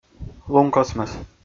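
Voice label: "лункосмӧс", Komi, male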